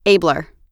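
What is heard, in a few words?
"abler", American English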